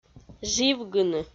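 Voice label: "живгыны", Komi, female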